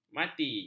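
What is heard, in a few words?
"mati", Malay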